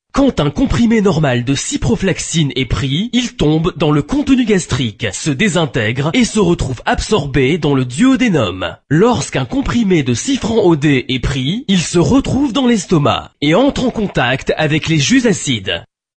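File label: French male voice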